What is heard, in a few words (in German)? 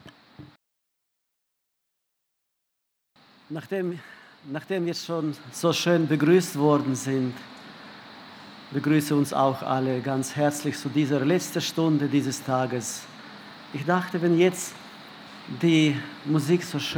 Ansage; Die Ernte der Erde ist duerr geworden